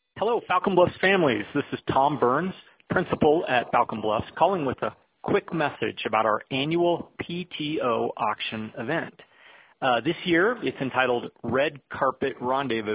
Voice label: voicemail